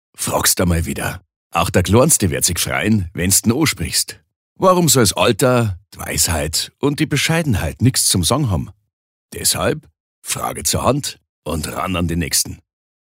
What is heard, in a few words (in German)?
Dialekt Bayerisch